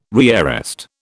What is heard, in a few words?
"rearrest", British